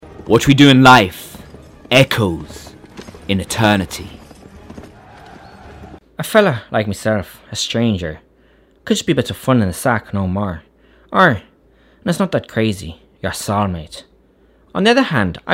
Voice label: VO Acting Accents